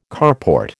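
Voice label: "carport", American English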